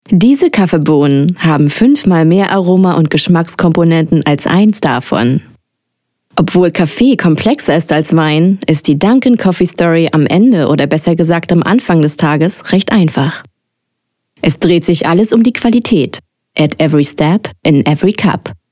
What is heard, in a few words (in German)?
Erklaervideo Voiceover; Simple Animations